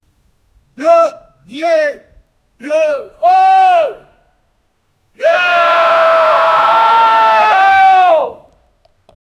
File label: a person calling down as, fight